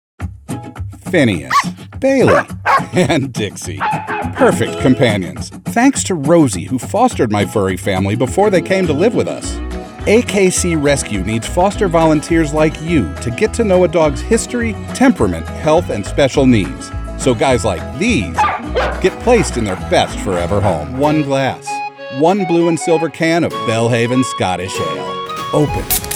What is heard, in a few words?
commercial